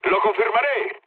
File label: player killed enemy, mood low, speech